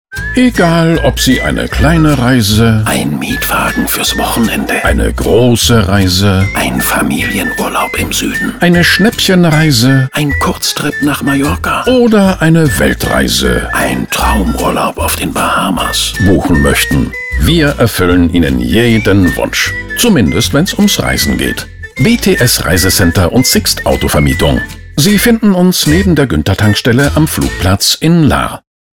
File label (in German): deutsch, Radiospot Beispiele Tourismus & Hotels, Emotional, träumerisch, inspirierend